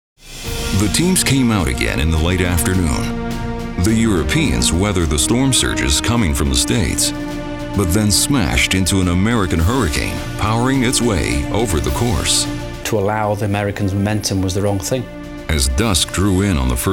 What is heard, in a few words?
US Narrator